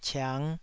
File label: "ciang5", taiwanese